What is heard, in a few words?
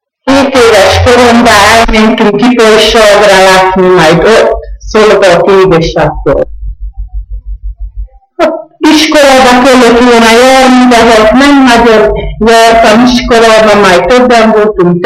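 dialect collection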